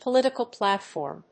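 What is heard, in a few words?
"political platform", English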